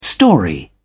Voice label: "story", English

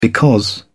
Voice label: "because", English

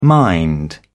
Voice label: "mind", English

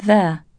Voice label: "their", English

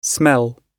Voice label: "smell", English

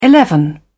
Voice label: "eleven", English

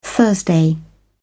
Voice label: "Thursday", English

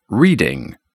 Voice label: "reading", English

